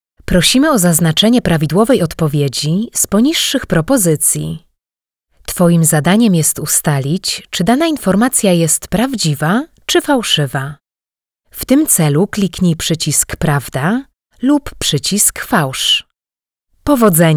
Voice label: Female Polish Voice ELearning